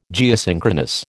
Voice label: "Geosynchronous", American

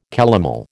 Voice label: "calomel", American

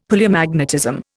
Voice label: "Palaeomagnetism", Indian; Dictionary

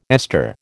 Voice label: "ester", American

